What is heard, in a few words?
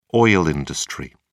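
"oil industry", British English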